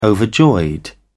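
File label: "overjoyed", British English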